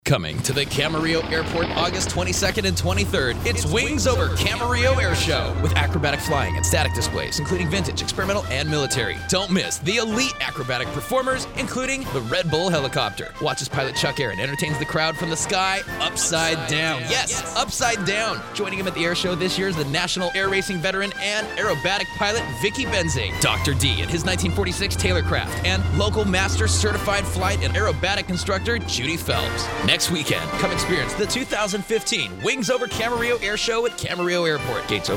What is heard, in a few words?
radio spot